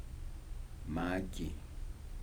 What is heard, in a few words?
"water", Kibembe